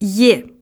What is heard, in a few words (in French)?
lettre ye, russe